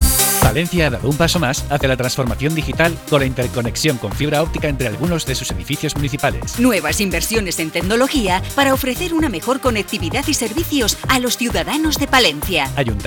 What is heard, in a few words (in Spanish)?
CUÑA TRANSFORMACION DIGITAL